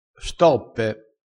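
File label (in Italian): "stoppe", dialetto crespinese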